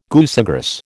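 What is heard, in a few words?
"goosegrass", American English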